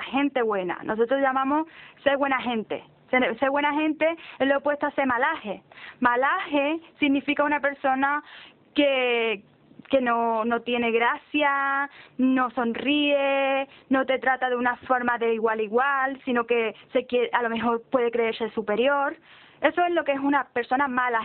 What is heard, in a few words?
Spain Sevilla Politeness